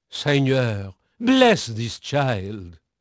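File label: French male, voice; Pirates Of The Caribbean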